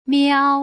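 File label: "miao", Putonghua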